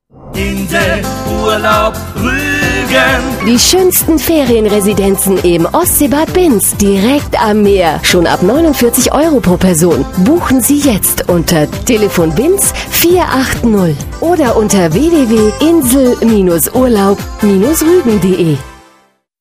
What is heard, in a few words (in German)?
Spot Insel Urlaub Ruegen; Werbespot mit Soundlogo: Insel-Urlaub-Rügen; Radiowerbung